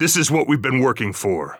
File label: radio lock n load; Counter Strike Model Pack; CS:GO; phoenix coop